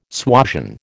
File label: "suasion", American